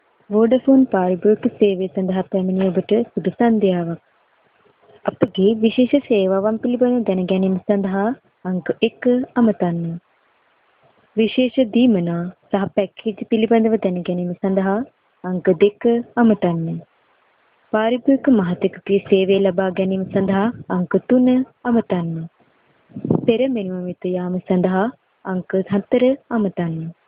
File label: sinhala